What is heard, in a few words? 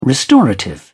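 "restorative", English, British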